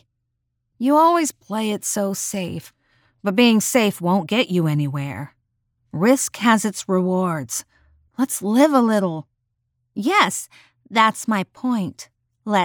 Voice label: Jake wants to jump, feminine voices; Train Jumper; Feminine Voices of the Legion

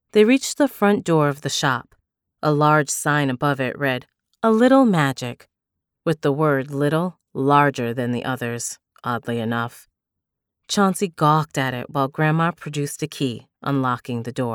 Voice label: Fantasy Sample 3rd person; Feminine Voices of the Legion

